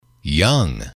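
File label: "young", male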